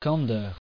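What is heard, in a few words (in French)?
"candeur" French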